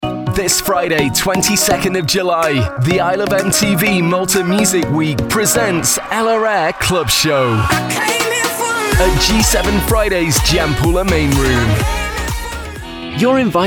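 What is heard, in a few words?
adverts showreel, Male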